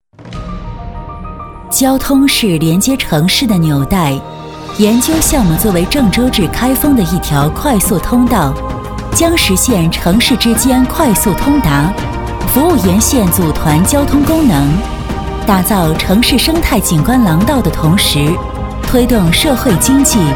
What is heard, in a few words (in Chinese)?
女 多媒体 项目规划 工程类专题项目介绍